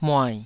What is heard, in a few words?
"muai3", taiwanese syllables